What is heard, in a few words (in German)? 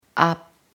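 "vor", German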